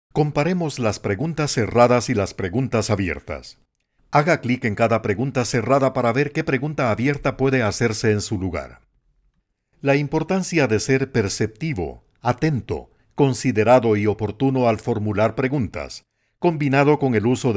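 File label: Spanish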